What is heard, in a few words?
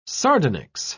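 "sardonyx", British English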